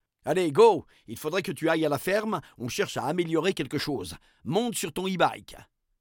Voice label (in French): narrateur; ordre ebike; dialogue; La-Fabrik